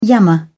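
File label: "yammer", British English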